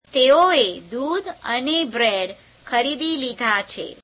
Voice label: gujarati, they bought milk and bread; [3rd plural pronoun]; તેઓએ દૂધ અને બ્રેડ ખરીદી લીધા છે; [teeo deūdh ane be'reeḍ khereīdeī leīdheā chhe ]